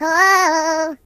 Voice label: "Whoopsee", Singer; hedgewars